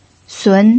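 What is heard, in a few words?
"sun", second tone, Mandarin Chinese pinyin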